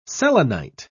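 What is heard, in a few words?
"selenite", British English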